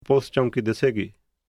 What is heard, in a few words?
policepost phrase, punjabi; English: police post